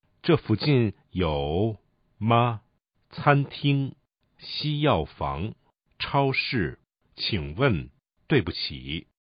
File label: Chinese